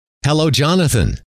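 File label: hello, male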